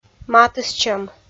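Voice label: "матыстчӧм", Komi, female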